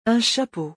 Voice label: "un chapeau", francais; vocabulaire; le lexique des vacances

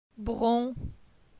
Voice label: "Bron", phonetique; la syllabe on

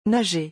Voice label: "nager", francais; vocabulaire; le lexique des vacances

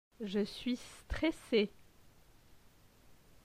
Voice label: "je suis stresse", francais

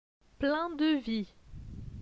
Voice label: "plein de vie", francais

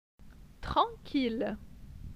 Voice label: "tranquille", francais

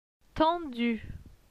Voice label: "tendu", francais

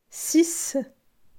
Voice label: "Six", phonetique; la graphie x

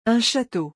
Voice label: "un chateau", francais; vocabulaire; le lexique des vacances

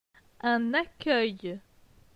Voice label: "un accueil", francais; phonetique; les consonnes

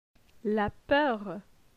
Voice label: "la peur", francais

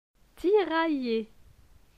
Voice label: "tiraille", francais

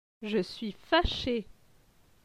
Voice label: "je suis fache", francais

